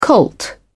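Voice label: "colt", British English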